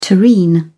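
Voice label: "terrine", English, British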